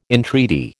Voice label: "entreaty", American

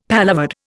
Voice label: "paloverde", Indian; Dictionary

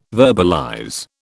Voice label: "verbalize", British

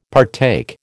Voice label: "partake", American

